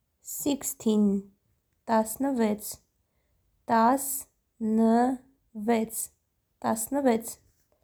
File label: 16, armenian; tasnyvets